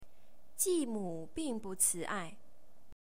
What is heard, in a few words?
Mandarin Chinese